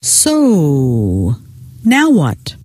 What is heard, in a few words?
so now what, scientist female